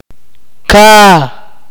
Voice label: "live", Akateko

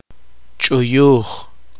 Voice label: poqomchi; pedaceado

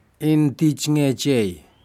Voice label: fish whitespotted Surgeonfish southern, Aneityum

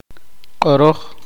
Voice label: poqomchi; decir

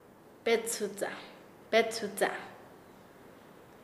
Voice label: Nasa Yuwe; rajarse; paez

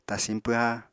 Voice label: "tasiñ pwha", apatani

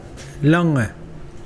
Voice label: sky, Mokilese

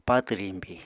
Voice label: "patw riñbi", Apatani

